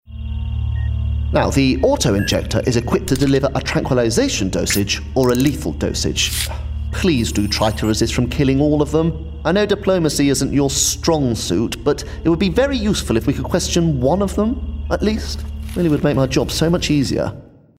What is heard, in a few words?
Gaming Scientist RP